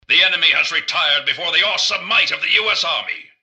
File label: generic robot lost to normal; mrgutsy; npc